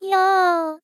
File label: happy; Techmino; miku